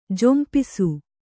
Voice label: "ಝಂಪಿಸು", Kannada

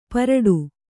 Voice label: "ಪರಡು", Kannada

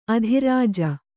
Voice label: "ಅದ್ರಿಜೆ", Kannada

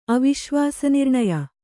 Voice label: "ಅವಿಶ್ವಾಸನಿರ್ಣಯ", kannada

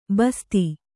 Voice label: "ಬಸ್ತಿ", Kannada

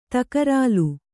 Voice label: "ತಕರಾಲು", Kannada

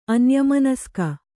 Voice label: "ಅನ್ಯಮನಸ್ಕೆ", Kannada

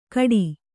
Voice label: "ಘಟಿ", Kannada

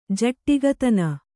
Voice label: "ಜಟ್ಟಿಗತನ", Kannada

